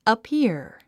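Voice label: "appear", English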